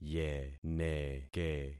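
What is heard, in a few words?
"yeneke", concatenated syllables; Affixing experiment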